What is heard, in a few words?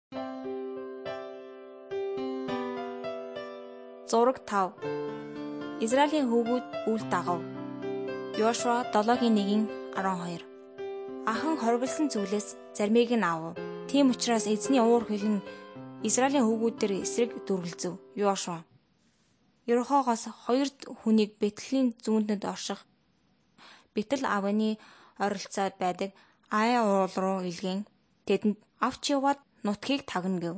mongolian